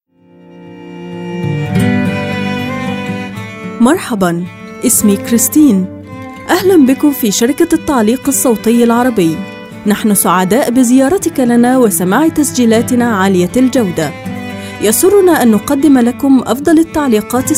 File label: Female Arabic showreel; the arabic voice over company